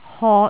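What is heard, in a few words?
"hot3", Cantonese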